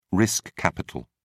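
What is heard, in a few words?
"risk capital", British English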